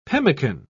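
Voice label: "pemican", English, British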